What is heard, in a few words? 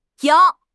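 "yang3", Chinese; 汉语口语新时代-初级下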